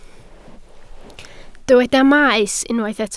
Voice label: "maes", Welsh

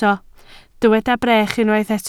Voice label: "brech", Welsh

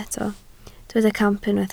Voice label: "camp", Welsh

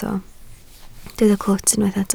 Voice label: "clwt", Welsh

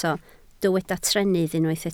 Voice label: "trennydd", Welsh

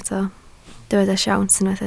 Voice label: "siawns", Welsh

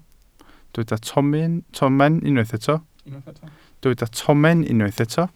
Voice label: "tomen", Welsh

